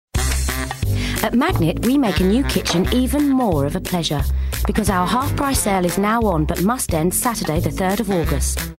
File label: Commercial; Magnet